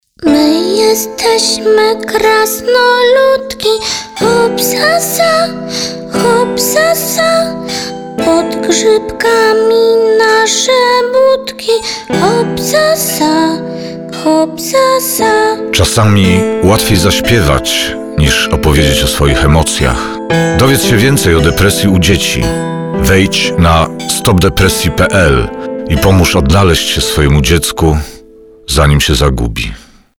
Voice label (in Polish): spot radiowy; depresja dzieci; krasnoludki